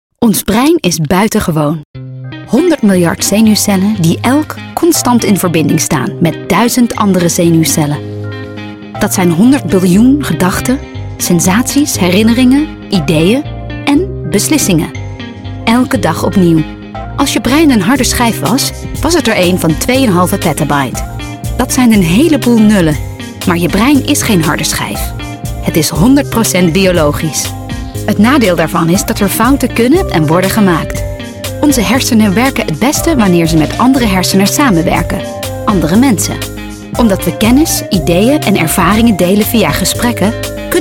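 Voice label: Dutch corporate; GoLocalise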